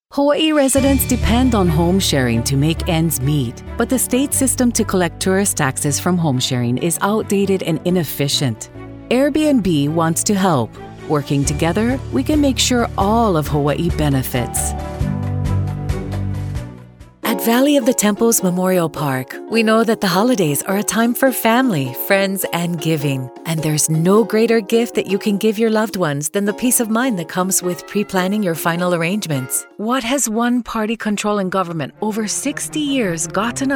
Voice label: Radio; Hawaiiana